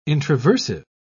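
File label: "introversive", English, uk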